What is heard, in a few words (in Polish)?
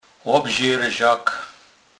"lobzerzak", kurpiow